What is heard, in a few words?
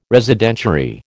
"Residentiary", American